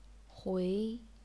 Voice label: "hui2", Chinese pinyin

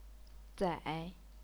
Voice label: "zai3", chinese pinyin

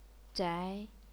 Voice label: "zhai2", chinese pinyin

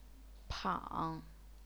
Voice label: "pang3", chinese pinyin

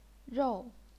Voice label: "rou4", chinese pinyin

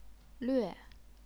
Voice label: "lve4", chinese pinyin

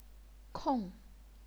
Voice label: "kong4", chinese pinyin

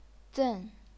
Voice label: "zen4", chinese pinyin

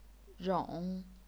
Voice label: "rong3", chinese pinyin

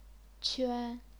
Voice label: "quan1", chinese pinyin

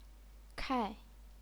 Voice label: "kai4", chinese pinyin

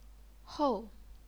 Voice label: "hou4", chinese pinyin

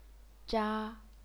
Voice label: "zha1", chinese pinyin

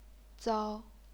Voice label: "zao1", chinese pinyin

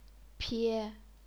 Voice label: "pie1", chinese pinyin

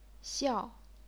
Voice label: "xiao4", chinese pinyin

